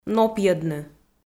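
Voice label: "нопйӧдны", Komi-Zyrian, female; audio dict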